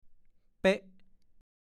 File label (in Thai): "เป๋อ", ภาษาโคราช; เป็นใบ้ มักใช้คู่กันเป็นบ้า เช่น เป็นบ้าเป็นเป๋อ